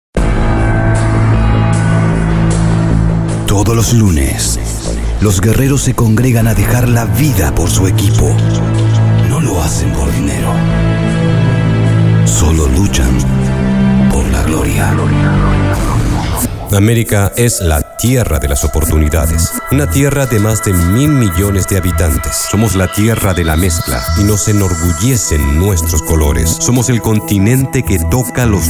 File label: demo neutro